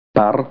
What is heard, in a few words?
"bull", hebrew